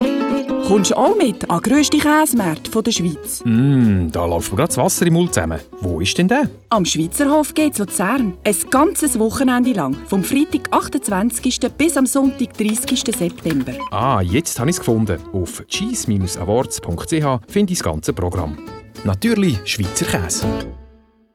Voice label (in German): Radiospot; Swiss Cheese Award